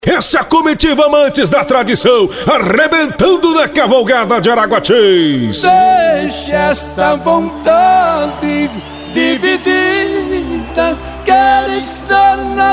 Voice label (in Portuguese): locutor